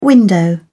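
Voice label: "window", British English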